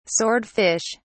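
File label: "sword fish", British English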